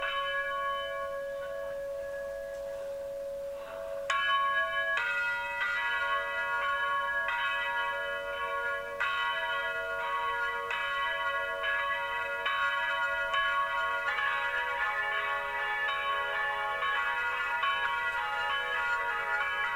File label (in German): Grußwort mit Glockengeläut; Adventskalender 2022